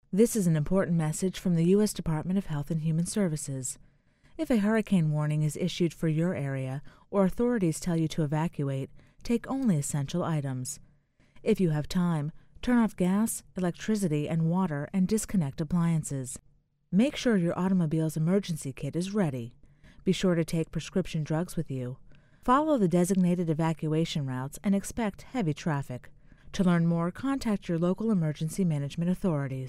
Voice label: psa disaster preparing hurricane evacuation female; disasters